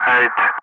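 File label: Speech, English, Digits, 3 continue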